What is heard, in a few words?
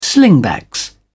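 "slingbacks", British English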